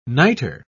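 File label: "nitre", British English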